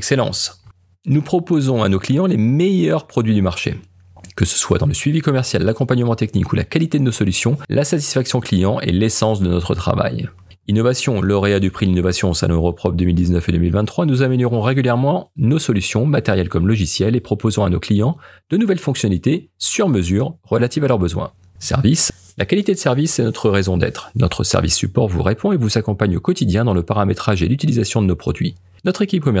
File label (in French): Voix off site internet page tout autres secteurs; pointage et traçabilité tous secteurs